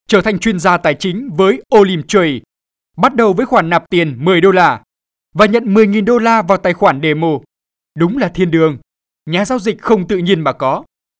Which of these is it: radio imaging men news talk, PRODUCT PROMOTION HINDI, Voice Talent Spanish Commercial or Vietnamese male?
Vietnamese male